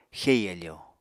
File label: "xei áfò", Apatani